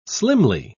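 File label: "slimly", British English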